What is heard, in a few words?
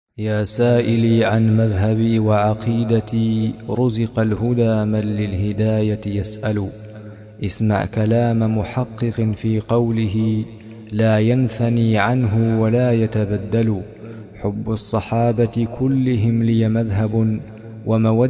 poem; laamiyyah